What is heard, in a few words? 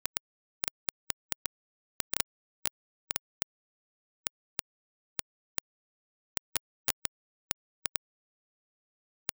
Example of synthetic vocal fry voice source